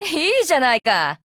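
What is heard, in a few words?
appreciate, Japanese; 少女前线:柯尔特沃克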